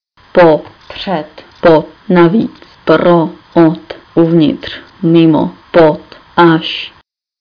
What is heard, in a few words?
czech; words